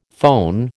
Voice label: "foehn", American